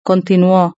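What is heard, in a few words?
"continuó", Spanish; verb-conjugator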